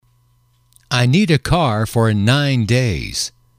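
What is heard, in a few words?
i need a car for 9 days, English, male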